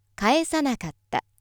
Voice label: female